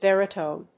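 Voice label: "baritone", English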